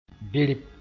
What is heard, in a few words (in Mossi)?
"birip", moore